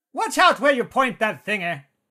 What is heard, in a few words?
battle chatter